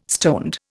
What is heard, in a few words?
"stoned", Indian